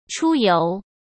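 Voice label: "出游", Mandarin Chinese; chinese flashcards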